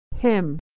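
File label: "hymn", American English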